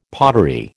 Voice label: "pottery", American